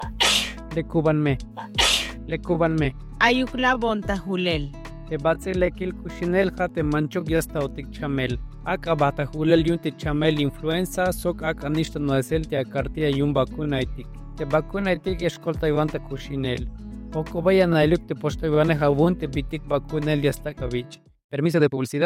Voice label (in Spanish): Spot Radio Tzetzal; mexico; salud inclusiva